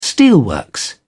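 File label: "steelworks", British English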